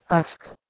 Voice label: "asc", Anglo Saxon

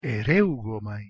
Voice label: "ereugomai" Ancient Greek

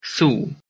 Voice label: "thu", Anglo Saxon

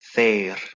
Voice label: "therh", Proto Germanic

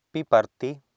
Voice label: "piparti", Sanskrit

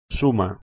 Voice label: "suma", Proto Germanic

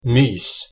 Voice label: "mys", Anglo Saxon

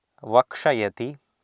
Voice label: "vakshayati", Sanskrit

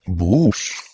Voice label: "bous", Ancient Greek

